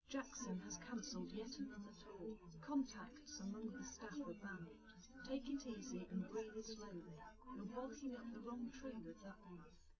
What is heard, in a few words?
female4 liverec 130ms 1m sim